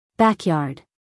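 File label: "backyard", American English, female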